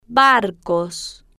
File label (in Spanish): "bar-cos", Spanish